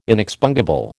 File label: "inexpungible", American